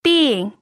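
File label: "bin", pinyin, fourth tone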